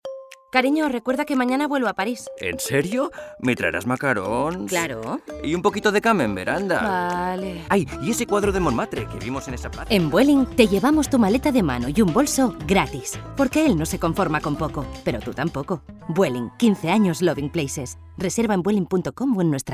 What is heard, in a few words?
female spanish airline comercial; ideasonora